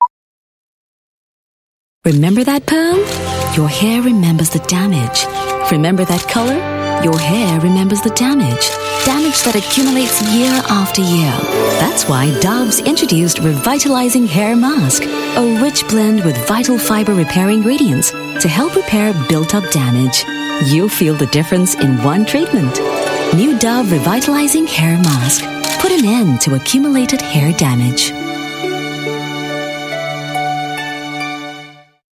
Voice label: English Singaporean Female; Dove Malta